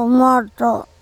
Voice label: "omOTo", andamanese; legs

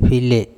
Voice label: "pHile", Andamanese; teeth

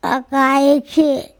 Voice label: "akaekHe", Andamanese; lier

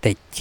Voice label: tEc leaf, Andamanese

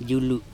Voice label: "julu" cloth, andamanese

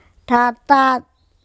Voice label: "THatat", Andamanese; my tongue

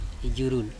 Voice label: sweet, ijurul

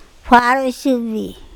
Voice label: "pHaroSubi", andamanese; a very poisonous snake

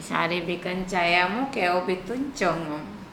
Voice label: "sare be cayamo kEo bituN cONOm", andamanese

